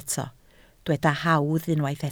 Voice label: "hawdd", Welsh